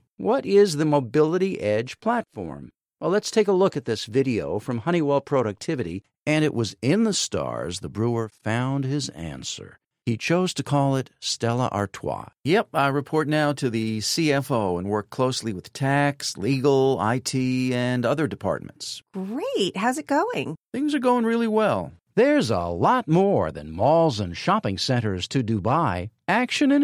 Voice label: VOICE OVER COMPILATION